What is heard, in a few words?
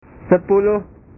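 "sepuluh", Indonesian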